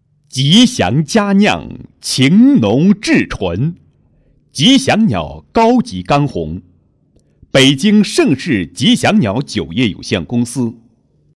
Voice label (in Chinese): 广告, 国语男声配音; 高音经济型; 吉祥鸟酒业; 酒类广告案例